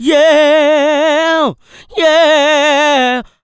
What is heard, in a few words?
"YEAH", Funky Vocals, ADLIB MEN; USB Soundscan vol.18; AKAI